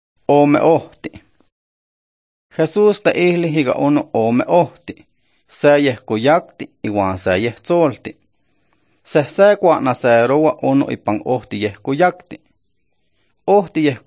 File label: Nahuatl Isthmus-Mecayapan; The Two Roads